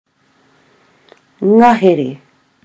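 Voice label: "ngahere", Māori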